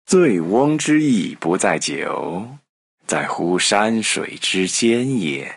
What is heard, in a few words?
girl; shaoxiadiablo